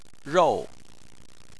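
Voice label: "rou", Chinese, tone 4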